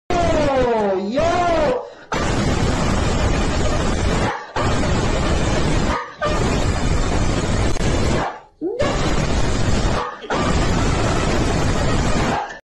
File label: loud mic guy